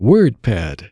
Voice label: WordPad, computer voice

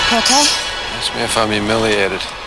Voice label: humiliated

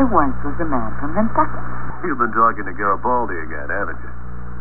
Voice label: Poem

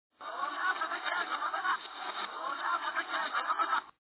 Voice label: reverse

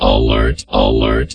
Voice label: "alert", computer voice

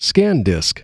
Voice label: "ScanDisk", computer voice

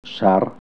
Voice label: "sings sang", hebrew sounds

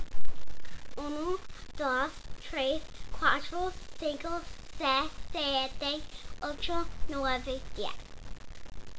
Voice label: spanish

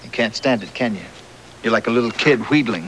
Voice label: litl kid

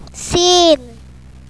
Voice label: "sen", Arabic

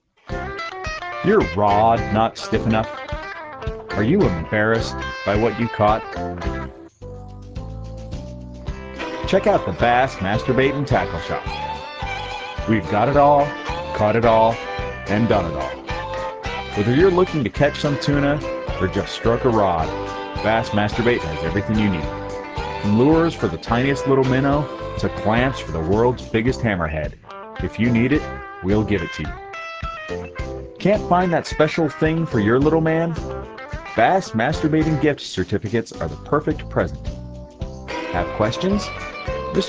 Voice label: Commercial; BassMaster

